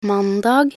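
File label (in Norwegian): "mandag", Norwegian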